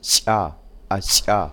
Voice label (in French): Fricative post-alvéolaire palatalisée éjective